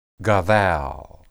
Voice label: "gadal", Hebrew

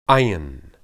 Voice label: "ayin", Hebrew

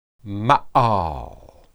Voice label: "maal", Hebrew

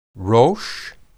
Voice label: "rosh", Hebrew

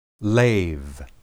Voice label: "leb", Hebrew

